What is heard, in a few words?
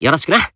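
Voice Emo Greet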